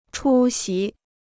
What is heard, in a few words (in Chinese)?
"出席", Chinese